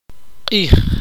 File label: "sol", Tektiteko